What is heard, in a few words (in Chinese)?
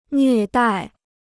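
"虐待", Chinese; nlüè dài; maltraiter; abuser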